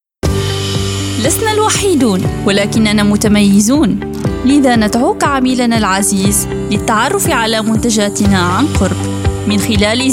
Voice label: Arabic, Female